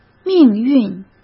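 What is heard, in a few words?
"命运", Mandarin Chinese; chinese flashcards; hsk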